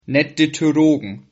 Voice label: meet, Sylt Frisian